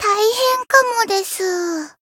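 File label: encounter fear, Japanese; soira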